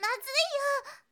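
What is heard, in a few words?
"mazuiyo", Japanese, girl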